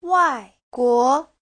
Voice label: "wai4guo2", Chinese

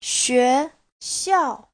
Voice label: "xue2xiao4", Chinese

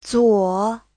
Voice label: "zuo3", chinese

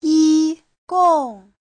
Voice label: "yi1 gong4", Chinese; 一共; (phó từ) tổng cộng; Giáo trình chuẩn HSK3

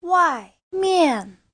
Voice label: "wai4mian4", Chinese

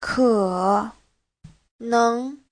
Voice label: "ke3 neng2", chinese

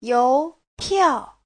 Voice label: "you2 piao4", Chinese

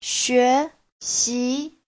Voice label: "xue2xi2", Chinese

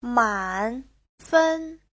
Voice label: "man3fen1", chinese; Giáo trình Hán ngữ

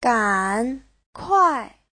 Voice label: "gan3 kuai4", chinese; 赶快; nhanh, mau gấp

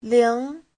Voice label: "ling2", chinese

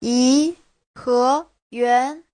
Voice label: "yi2 he2 yuan2", Chinese; 颐和园; Di Hòa Viên

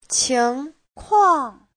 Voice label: "qing2kuang4", Chinese; 情况; Giáo trình chuẩn HSK3

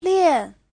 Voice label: "lian4", chinese; Giáo trình Hán ngữ

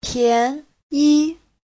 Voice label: "pian2yi5", Chinese

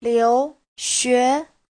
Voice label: "liu2xue2", chinese

